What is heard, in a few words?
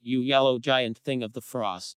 Creaky; arctic